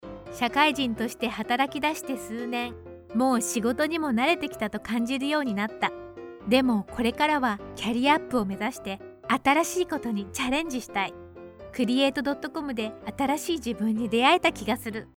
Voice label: Commercial Programming School Commercial